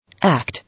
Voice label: "Act", English